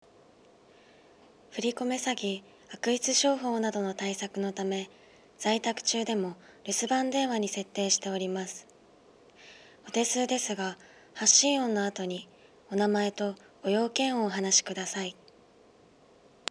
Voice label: woman